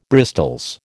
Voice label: "bristols", American